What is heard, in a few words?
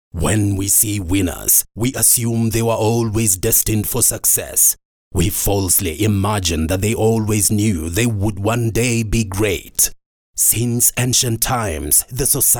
english african movie trailers